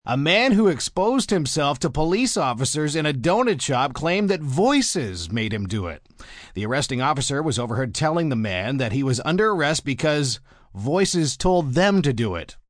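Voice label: News Voices M, Broadcast, news, male news item on humourous donut shop incident.; VoiceBox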